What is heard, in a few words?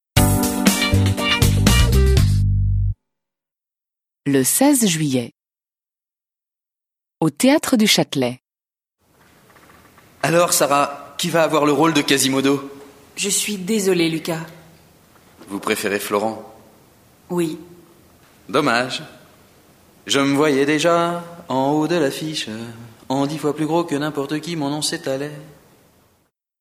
French